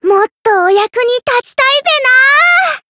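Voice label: Voice growth Japanese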